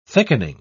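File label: "thickening", British English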